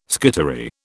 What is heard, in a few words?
"skittery", British